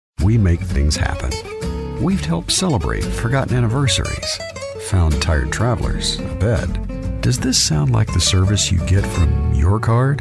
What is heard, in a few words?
Commecial